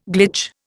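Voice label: "glitter", Indian; Dictionary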